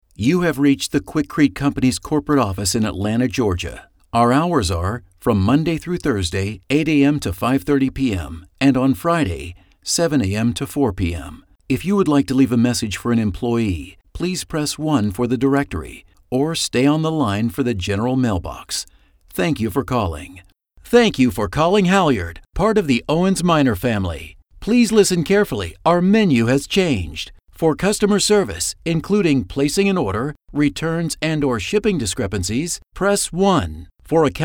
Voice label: Telephony